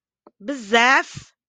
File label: "بزاف", a lot